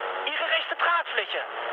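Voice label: German right wing mood med, speech; War Thunder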